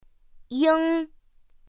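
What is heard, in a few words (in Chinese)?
"yīng", Mandarin Chinese; pinyin2; pinyinji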